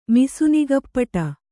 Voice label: "ಮಿಸುನಿಗಪ್ಪಟ", Kannada

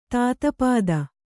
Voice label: "ತಾತಪಾದ", kannada

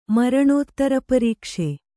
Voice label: "ಮರಣೋತ್ತರಪರೀಕ್ಷೆ", kannada

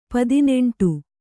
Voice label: "ಪದಿನೆಂಟು", kannada

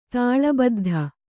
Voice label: "ತಾಳಬದ್ಧ", Kannada

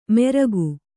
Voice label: "ಮೆರಗು", Kannada

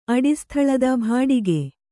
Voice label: "ಭಾಡಿಗೆ", Kannada

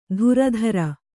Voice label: "ಧುರಧರ", Kannada

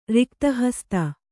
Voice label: "ರಿಕ್ತಹಸ್ತ", Kannada

